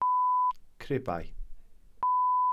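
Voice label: "cribau", Welsh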